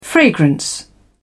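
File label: "fragrance", English, British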